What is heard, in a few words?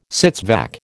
"sits vac", American